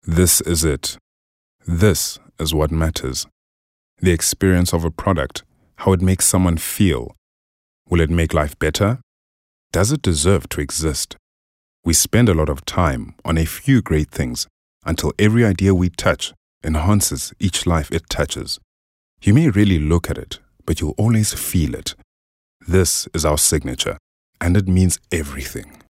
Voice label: English dramatic